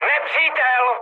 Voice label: enemy detected, speech cze, mood high

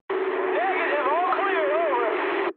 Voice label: eng chat, speech eng

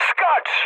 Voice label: bailout mood med, speech pol; War Thunder

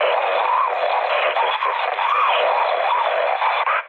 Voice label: damaged pilot, mood low, speech hun; War Thunder

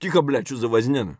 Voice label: silence; Escape from Tarkov; scav5

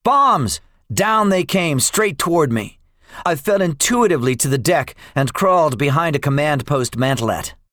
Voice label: debriefing, english; midway; War Thunder

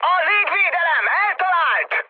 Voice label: damaged aa, mood high, speech hun; War Thunder

